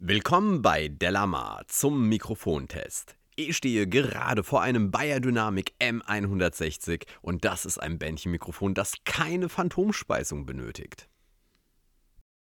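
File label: speech male beyerdynamic m160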